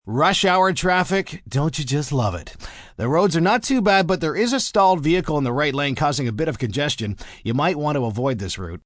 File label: Traffic, traffic report, male, rush hour traffic, stalled vehicle, news; Broadcast; human voice speaking